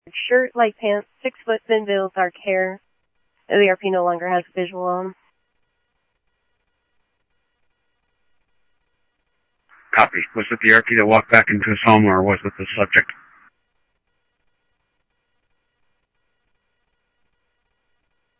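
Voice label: Mesa police department, scanner audio trunk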